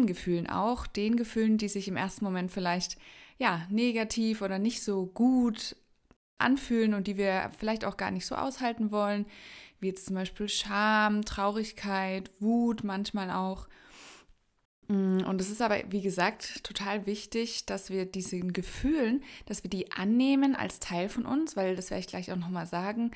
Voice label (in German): Meditation Negativen Gefuehlen Raum geben; rainbow gedanken